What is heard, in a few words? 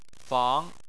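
"fang" second tone, Chinese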